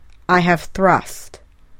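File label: "I have thrust", English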